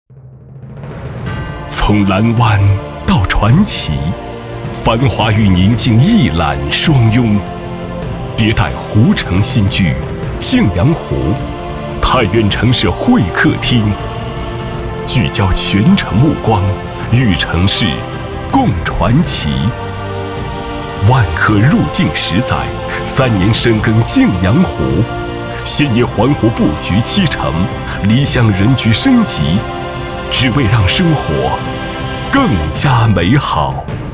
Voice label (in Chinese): 男 房产类; 万科